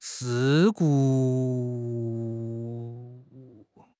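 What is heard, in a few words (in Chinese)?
"事故", Chinese; HSK6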